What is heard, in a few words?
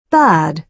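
"bad", British English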